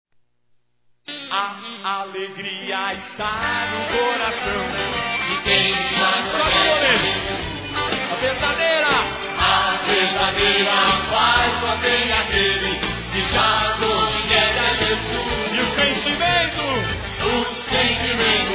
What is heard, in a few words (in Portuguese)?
Neutro Católico feminino; Aniversários; Aniversários diversos